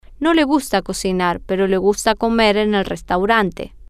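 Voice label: He doesn't like to cook but he likes to, Spanish, female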